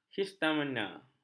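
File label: "histamina", Malay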